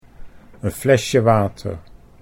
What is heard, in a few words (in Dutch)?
"een flesje water", Dutch